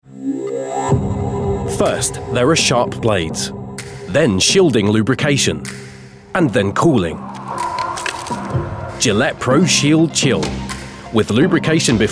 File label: Commercials